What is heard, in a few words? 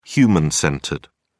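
"human-centered", English, uk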